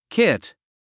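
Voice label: "kit", English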